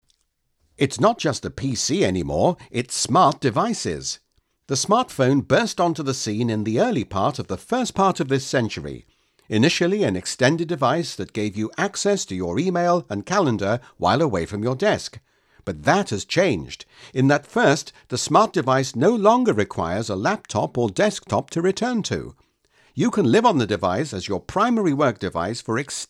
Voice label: elearning